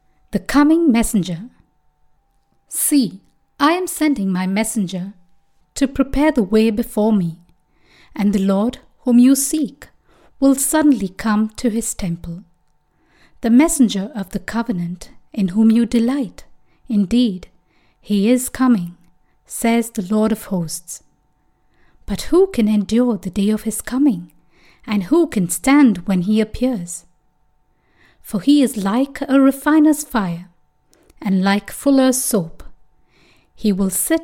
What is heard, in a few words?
Carol Service Reading